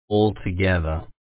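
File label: "altogether", Australian English, male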